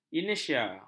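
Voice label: "inersia", Malay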